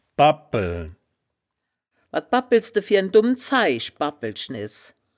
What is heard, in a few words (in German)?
"babpeln", woerterbuch, Wittlich